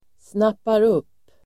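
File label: "snappar upp", Swedish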